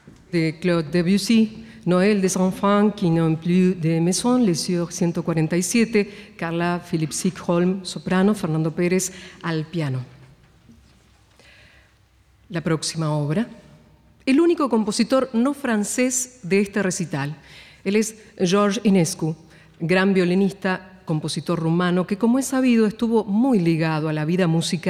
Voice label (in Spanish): locutora